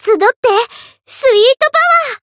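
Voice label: Voice encount, Japanese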